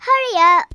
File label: come on then, Kidz; Worms Armageddon